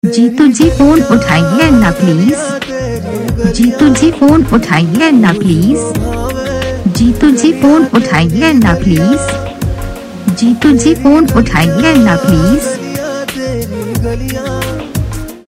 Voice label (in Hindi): phone uthaiye na please saying